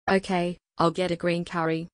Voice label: female thai